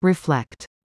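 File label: "reflect", English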